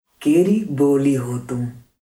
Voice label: punjab pakistan